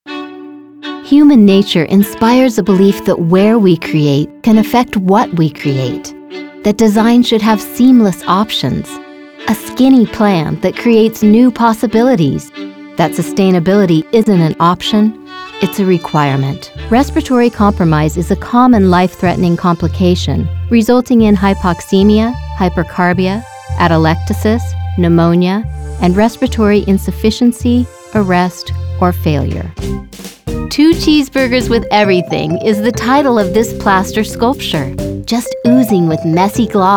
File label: Narration